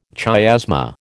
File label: "chiasma", American